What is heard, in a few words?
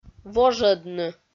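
"вожӧдны", Komi, female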